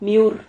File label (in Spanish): "miur", Silabas